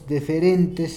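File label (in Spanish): diferentes, Spanish, Peru, Cusco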